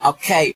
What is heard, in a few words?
"okay", expletives